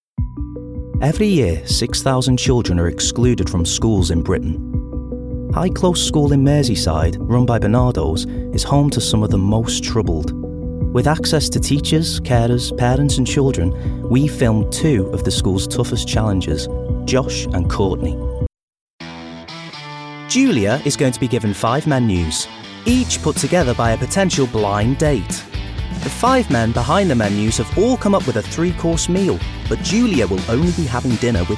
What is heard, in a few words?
Narration Reel